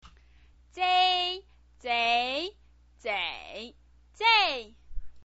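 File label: "zei", Mandarin Chinese pinyin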